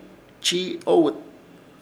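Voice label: "Chiiowɨt", Chamacoco word, controlar; Ishir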